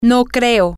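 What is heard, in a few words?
i dont think so, spanish, female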